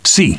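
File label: "yes", male; TALKNOW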